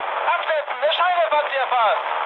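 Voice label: German searchlight mood med, speech; War Thunder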